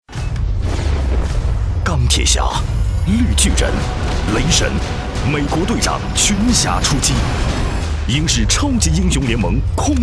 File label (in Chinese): 男 电影预告 国语男声配音